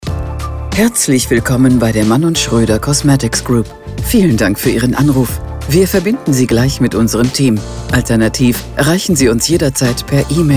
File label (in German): Ansage Kosmetik; Telefonansagen für Kosmetikstudios